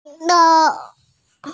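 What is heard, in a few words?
"yi1sheng1" Mandarin Chinese, female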